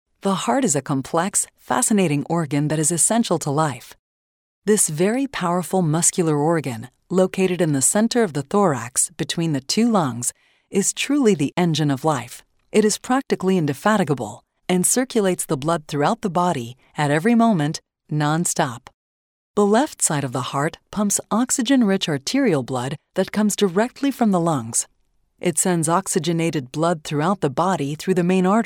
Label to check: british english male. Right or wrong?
Wrong